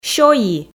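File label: "сёйи", Komi, female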